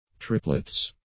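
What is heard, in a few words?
"triplets", English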